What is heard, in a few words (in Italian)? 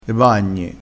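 "bagni", vocabolario dialettale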